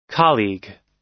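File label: "colleague", American English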